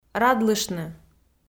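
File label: "радлыштны", Komi, female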